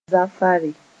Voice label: "zafare", Moore